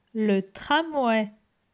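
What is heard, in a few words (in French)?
"Le tram", French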